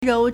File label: "rou2", Chinese